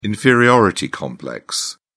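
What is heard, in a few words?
"inferiority complex", British English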